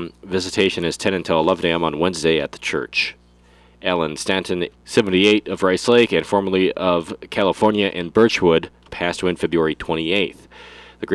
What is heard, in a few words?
Funeral Announcements